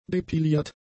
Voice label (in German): "depiliert", german; adjectives